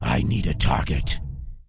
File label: Undead Male err generic no target; Scourge